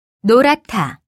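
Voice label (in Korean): "노랗다", Korean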